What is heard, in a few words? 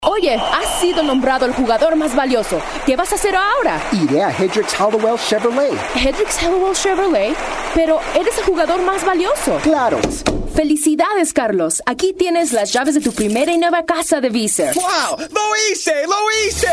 Commercial Spanish